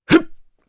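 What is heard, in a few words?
Vox Jump, Japanese; Dimael; kingsraid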